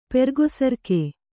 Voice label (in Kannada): "ಪೆರ್ಗೊಸರ್ಕೆ", Kannada